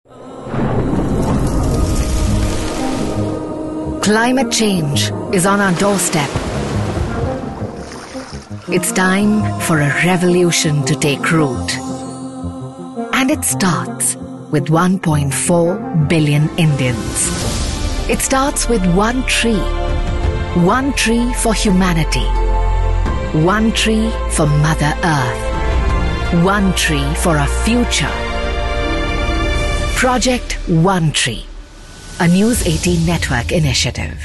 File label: Documentary